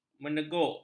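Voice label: "meneguk", Malay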